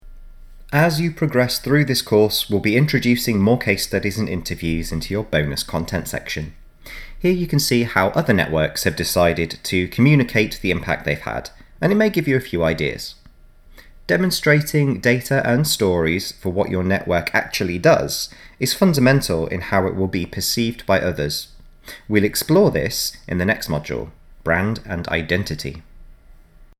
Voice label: Narration; Measuring Impact